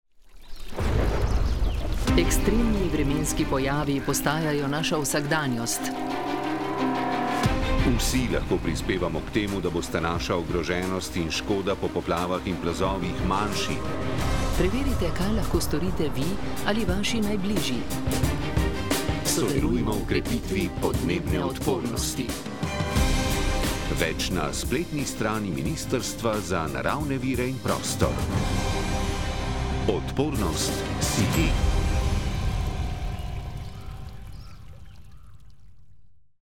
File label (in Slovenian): Radijski spot; krepimo odpornost; krepimo odpornost naselij na podnebne spremembe